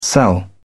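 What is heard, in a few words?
"cell", British English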